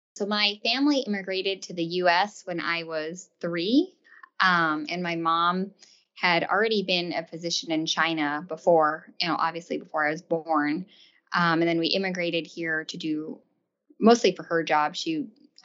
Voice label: Voice Over